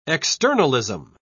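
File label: "externalism", British English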